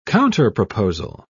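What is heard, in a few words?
"counterproposal", British English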